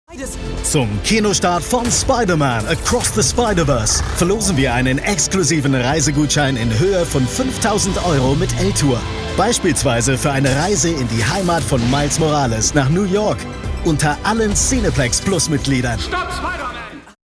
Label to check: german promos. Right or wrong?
Right